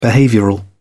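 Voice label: "behavioural", en, uk British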